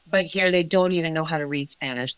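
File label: Voice Acting es, female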